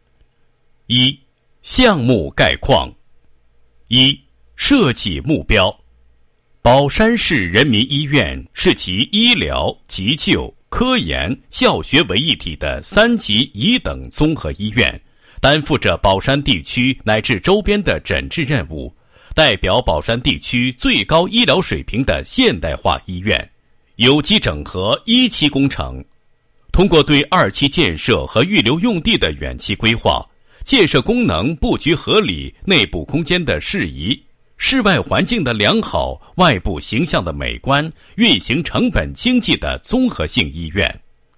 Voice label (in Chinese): 男 工程介绍; 人民医院迁建项目